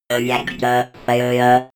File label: robot voice reactor failure fx